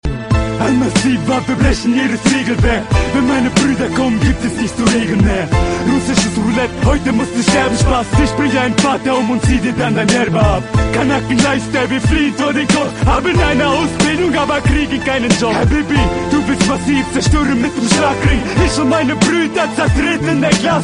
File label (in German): neuer rap deutscher klingelton